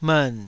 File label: "mern3", Taiwanese syllable